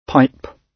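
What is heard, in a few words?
"pipe", British English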